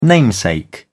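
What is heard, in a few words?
"namesake", British English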